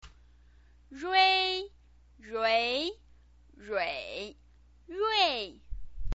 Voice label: "rui", chinese pinyin